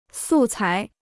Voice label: "素材", Chinese

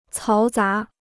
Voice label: "嘈杂", Chinese

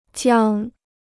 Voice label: "江", Chinese

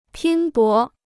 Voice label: "拼搏", Chinese

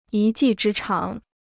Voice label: "一技之长", Chinese

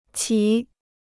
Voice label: "棋", Chinese

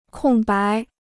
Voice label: "空白", Chinese

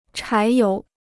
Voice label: "柴油", Chinese

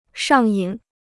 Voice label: "上瘾", Chinese

